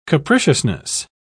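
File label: "capriciousness", English, British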